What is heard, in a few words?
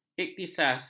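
"ikhtisas", Malay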